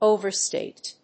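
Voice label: "over stage", English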